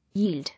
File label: "Yield", English